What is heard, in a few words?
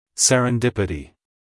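"serendipity", American English male; everyone-can-use-english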